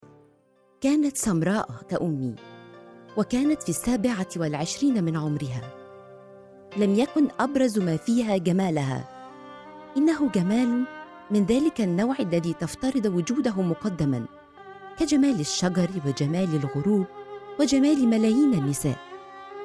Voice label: audio book